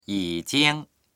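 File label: "yi3jing1", Chinese; yǐjīng; 已经; すでに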